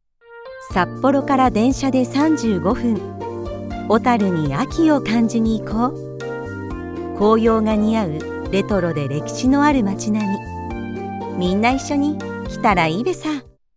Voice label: japanese promos

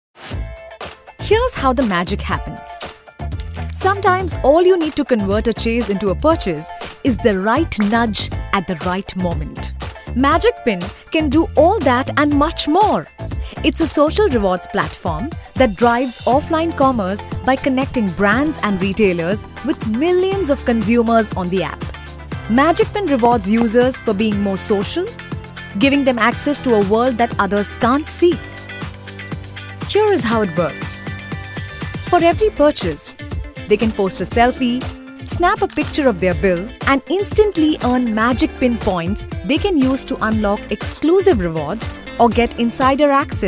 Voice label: english indian explainer videos; professionelle indische Sprecherin

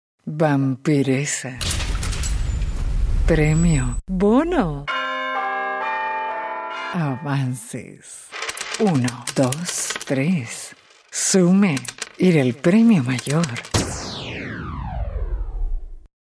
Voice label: spanish latin american radio imaging; presentadora de radio

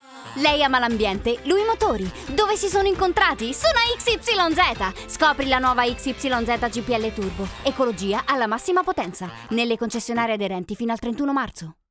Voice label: italian promos

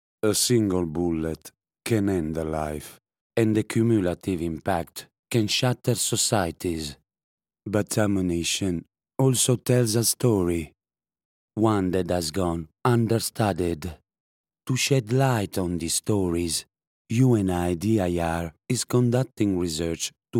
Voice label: English political ads

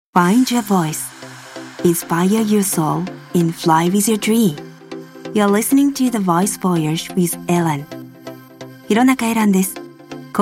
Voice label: japanese podcasts

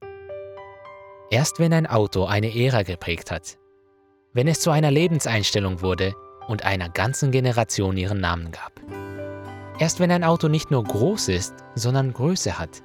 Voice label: german commercial

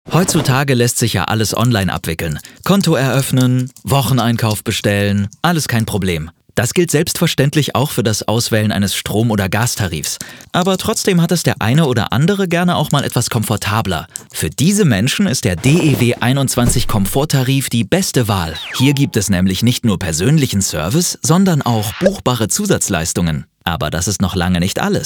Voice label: German others

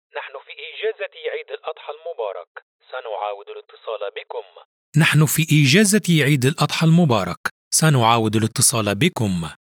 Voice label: Arabic ivr

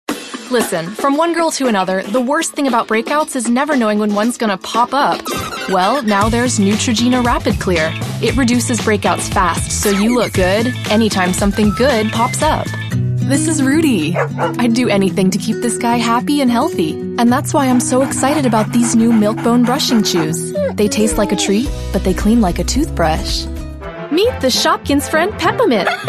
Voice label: English American commercial; Englisch (US); Vereinigte Staaten; My sound: Girl Next Door with a PhD!; Los Angeles voice ac

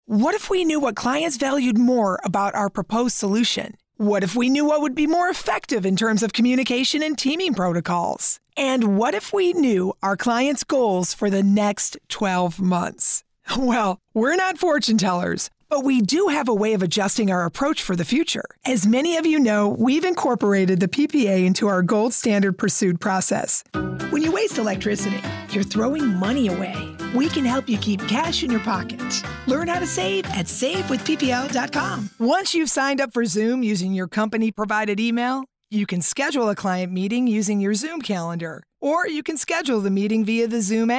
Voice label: english american corporate videos

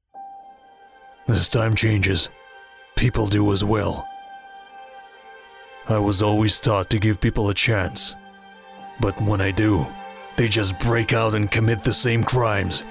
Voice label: english movie trailers

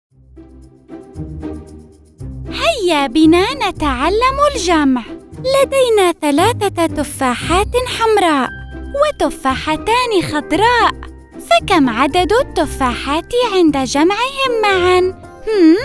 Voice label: Arabic e-learning

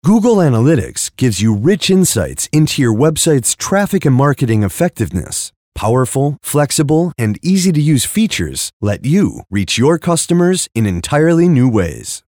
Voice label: english american promos

